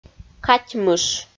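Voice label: "катьмыш", Komi, female; kpv audio dict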